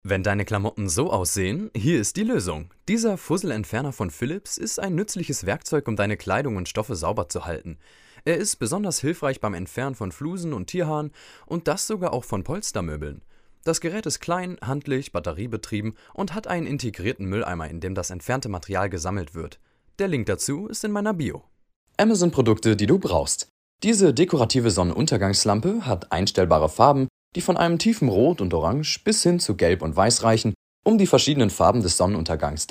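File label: German male; lektoring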